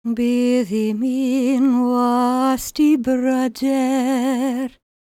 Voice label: WELSH F, World Voices